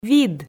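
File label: "вид", Komi, female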